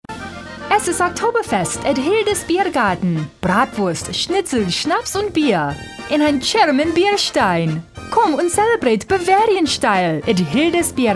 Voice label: German Accent VO